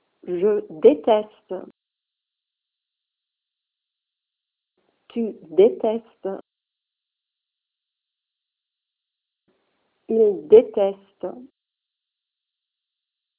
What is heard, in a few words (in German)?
verb detester mit pausen; franzoesisch